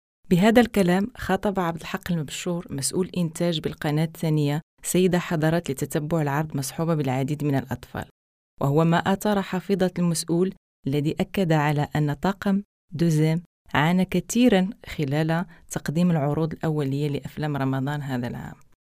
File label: moroccan arabic female voiceover locutora arabe marruecos